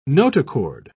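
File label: "notochord", British English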